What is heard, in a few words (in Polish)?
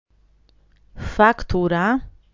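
"faktura", Polish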